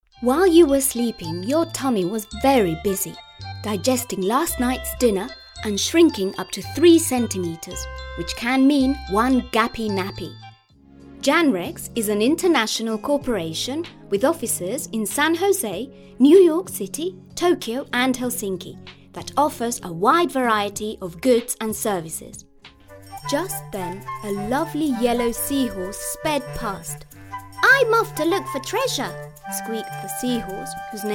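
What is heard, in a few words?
Latin American Spanish, accented English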